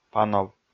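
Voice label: "панов", Komi, male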